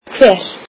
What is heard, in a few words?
"who", Icelandic